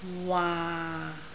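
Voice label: "waa6" Cantonese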